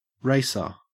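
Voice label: "racer", Australian English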